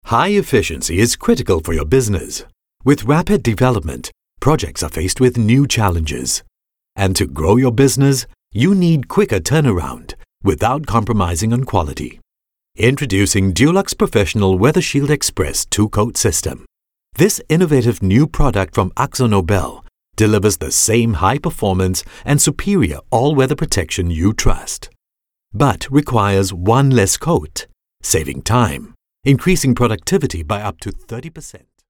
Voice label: Asian English Corporate VO Only